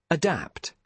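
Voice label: "adapt", British English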